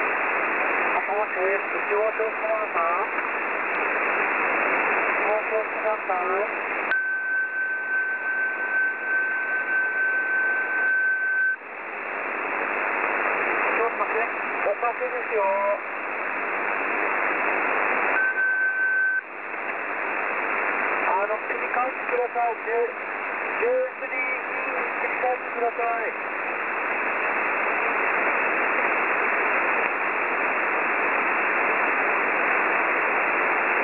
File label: japanese voice